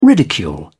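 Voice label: "ridicule", British English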